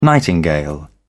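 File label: "nightingale", English, British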